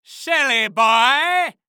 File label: Cartoon Loud Voice Silly Boy; AdobeCC